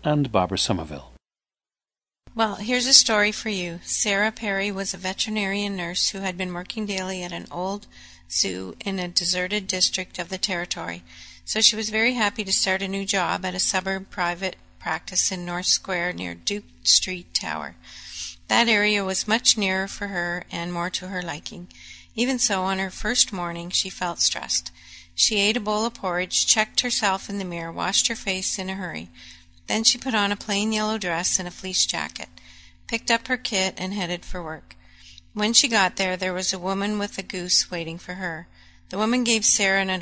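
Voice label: ontario, International Dialects of English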